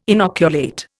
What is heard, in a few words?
"inoculate", Indian